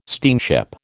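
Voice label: "steamship", American English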